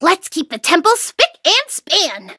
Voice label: level up, en

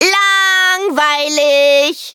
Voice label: defeat, de; Cookie Run

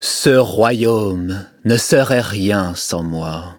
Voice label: levelup, fr; Cookie Run

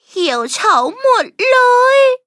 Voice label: defeat, th; Cookie Run

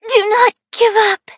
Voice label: hit, en; Cookie Run

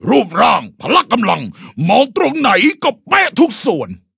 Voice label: level up, th; Cookie Run

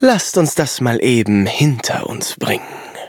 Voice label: battle de; cookie run

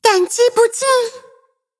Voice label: levelup zh-hant

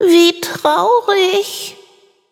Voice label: defeat de

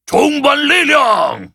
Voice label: touch, zh hant; cookie run

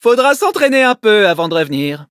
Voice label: victory, fr; Cookie Run

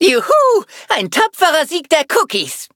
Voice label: victory, de; cookie run

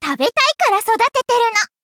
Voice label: upgrade, ja; Cookie Run

